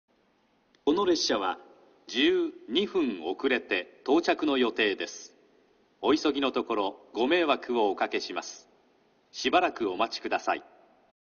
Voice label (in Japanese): 新鳥栖駅 11番のりば遅延放送・遅れ約12分（つばめ 博多行き）; ※案内放送部分はカットしております。; 九州新幹線SIRIUS（シリウス）放送